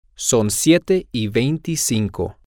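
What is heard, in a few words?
its 725, Spanish, male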